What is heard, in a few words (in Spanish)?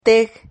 "teg", Silabas